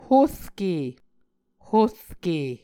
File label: "horke", Muscogee